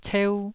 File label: "ceu1", taiwanese Syllables